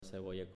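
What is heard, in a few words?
"Cebolla", Spanish, male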